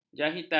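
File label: "jahitan", Malay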